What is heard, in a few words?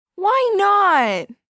Whine Why Not, 1 girl, 12 yrs; Whining